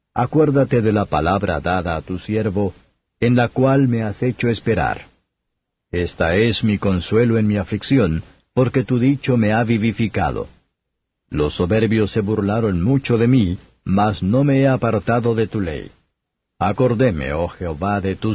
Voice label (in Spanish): salmos spanish; 119; zayin